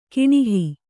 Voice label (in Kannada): "ಕಾಣುಹ", Kannada